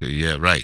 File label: "yea", vox; E-Lab; X Static Goldmine 2; akai